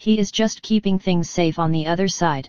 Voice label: woman; DeadFright